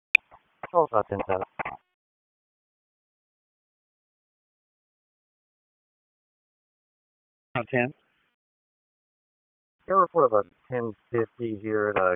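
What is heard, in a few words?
Police District Dispatch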